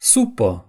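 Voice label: "soup", Romanian; gcompris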